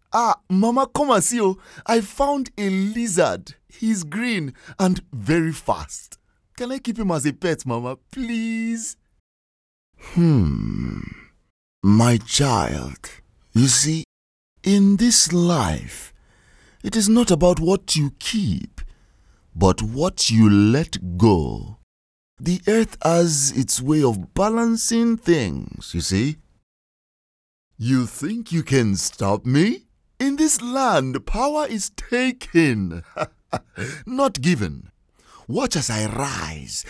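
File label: African English, male, Character; nigeria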